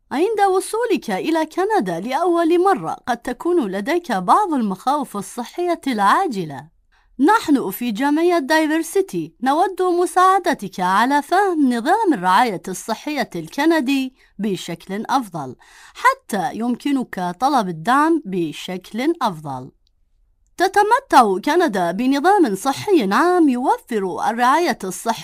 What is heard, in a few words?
Arabic